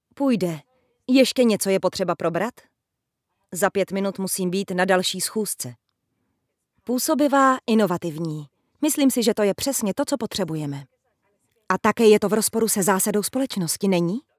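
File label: czech female narration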